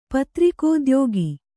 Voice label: "ಪತ್ರಿಕೋದ್ಯೋಗ", Kannada

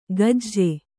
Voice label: "ಗಜ್ಜೆ", kannada

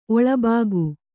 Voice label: "ಒಳಭಾಗ", Kannada

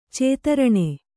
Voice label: "ಚತುರಣ", Kannada

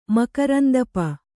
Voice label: "ಮಕರಂದಪ", kannada

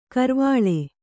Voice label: "ಕರ್ವಾಳೆ", Kannada; karvāḷe; noun

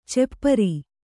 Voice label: "ಚಪ್ಪಿರಿ", Kannada

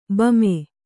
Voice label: "ಬೊಮ್ಮಿ", Kannada

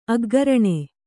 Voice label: "ಅಗ್ಗರಣೆ", Kannada

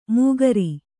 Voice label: "ಮುಖಾರಿ", Kannada

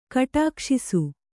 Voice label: "ಕಟಕಸೇಸೆ", Kannada

